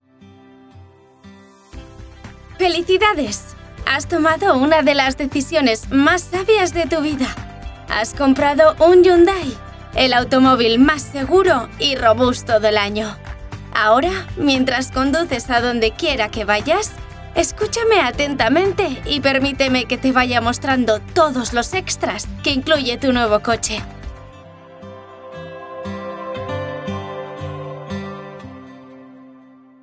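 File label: E-learning Spanish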